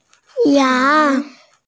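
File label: "yang2", Mandarin Chinese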